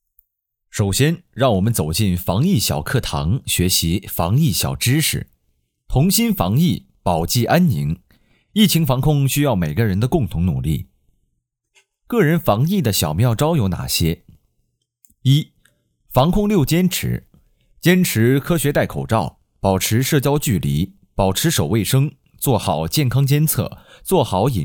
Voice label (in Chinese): 播音